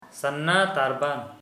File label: "small flower", Sora